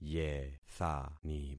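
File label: "yethani", concatenated syllables; Affixing experiment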